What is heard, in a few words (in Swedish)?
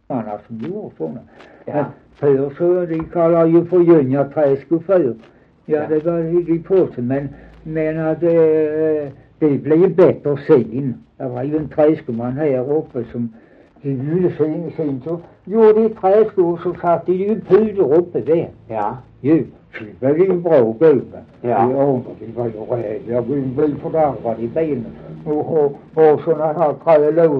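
Dalby Skåne, dialekter; Folkminnen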